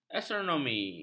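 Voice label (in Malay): "astronomi", Malay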